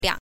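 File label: "liang", Mandarin Chinese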